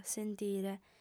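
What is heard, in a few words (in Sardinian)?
"sentire", female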